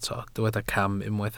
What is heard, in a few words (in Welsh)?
"cam", Welsh